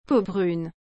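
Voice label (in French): "peau brune", French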